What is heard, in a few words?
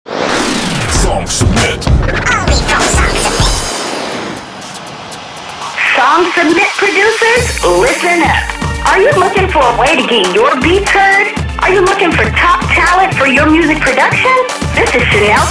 radio drop